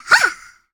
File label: cartoon voices female amused; sonniss gdc